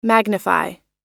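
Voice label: "magnify", American English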